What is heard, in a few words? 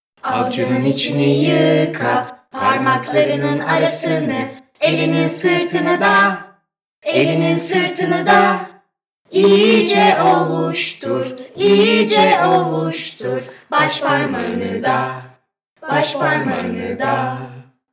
Turkish